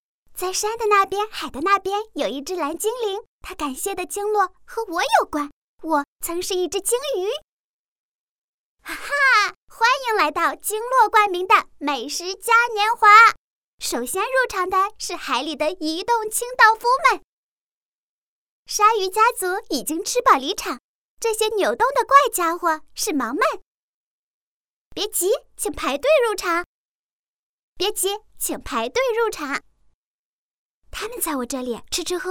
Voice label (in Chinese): 女, 女童声, 趣味科普, 鲸落